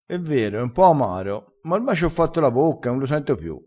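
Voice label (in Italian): "facci la bocca", vocabolario dialettale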